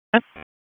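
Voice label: Police Southwest District Dispatch, p25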